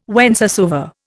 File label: "whencesoever", Indian